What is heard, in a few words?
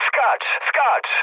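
bailout, mood low, Polish speech; War Thunder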